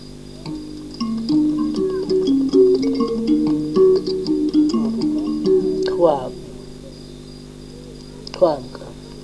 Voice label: kxoe